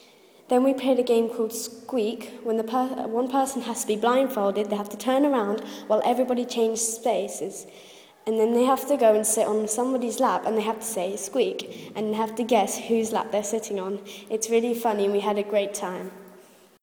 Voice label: game squeak halloween